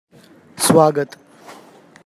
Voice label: Welcome Gujarati